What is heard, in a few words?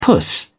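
"puss", British English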